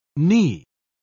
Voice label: "knee", English